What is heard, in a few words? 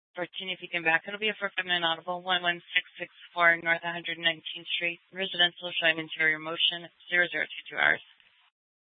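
police dispatch, Scottsdale PD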